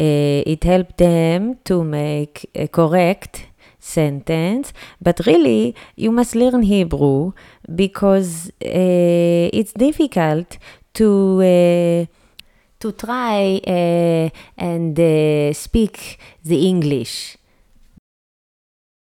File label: ISRAELI ACCENT